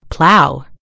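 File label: "plow", British English; gcompris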